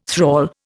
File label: "thrall", Indian